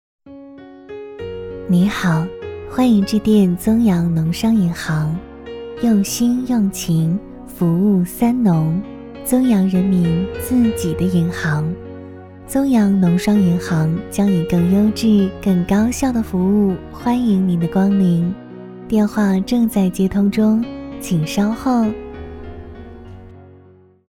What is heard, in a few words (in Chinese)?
企业彩铃